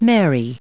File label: "Marys", us